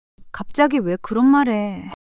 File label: shy; monologue